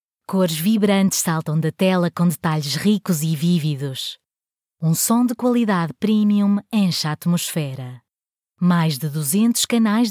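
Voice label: portuguese female commercial, European Portuguese Female Voice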